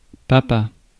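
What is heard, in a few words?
"papa", French; phonemes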